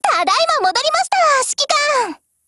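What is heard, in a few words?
OPERATION OVER, Japanese; GF Alarm; M14Mod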